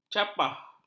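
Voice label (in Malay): "capah", Malay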